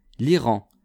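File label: "liran", French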